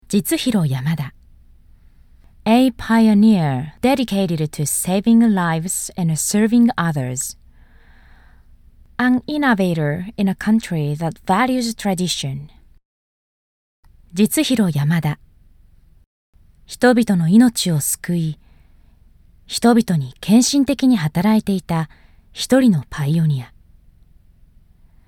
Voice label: English and Japanese